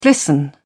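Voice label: "glisten", British English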